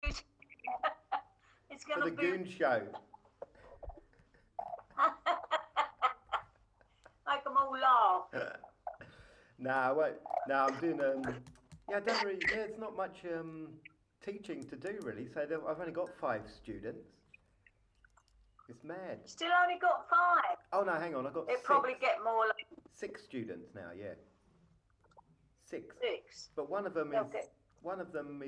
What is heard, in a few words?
parents on phone; 2019